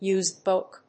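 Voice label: "Used Book", English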